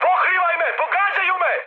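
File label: Serbian aircraft damaged mood high, speech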